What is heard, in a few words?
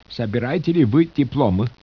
speech russian